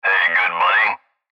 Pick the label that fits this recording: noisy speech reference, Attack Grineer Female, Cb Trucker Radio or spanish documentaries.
Cb Trucker Radio